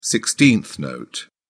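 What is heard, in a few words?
"sixteenth note", British English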